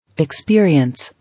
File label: "Experience", English